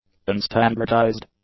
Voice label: "unstandardized", english; adjectives